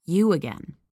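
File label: "You again", angry voice; Treasure of Nadia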